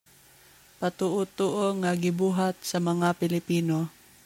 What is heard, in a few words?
Cebuano